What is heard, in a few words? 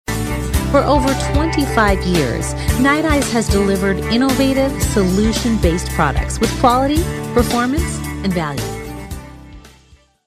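English US, female, corporate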